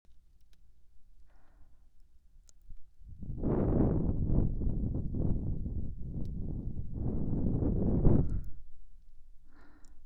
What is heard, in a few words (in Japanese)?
フリートーク, ASMR; 保険の先生と過ごす甘々密着性活～耳かきからオホ声まで～